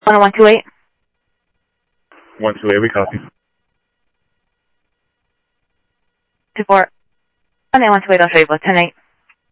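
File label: Sheriff East County, scanner audio; South Scottsdale; MCSO - EAST Sheriff-EastCnty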